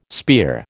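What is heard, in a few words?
"spear", American English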